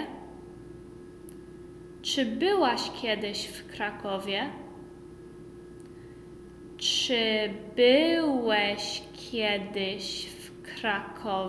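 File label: Polish Have you been to Cracow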